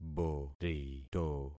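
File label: "borito", concatenated syllables; Affixing experiment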